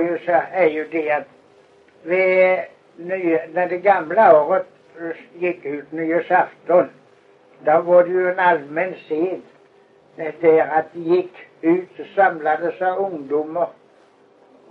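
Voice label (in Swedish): Kristianopel, Swedish dialect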